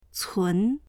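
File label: "cun2", Mandarin Chinese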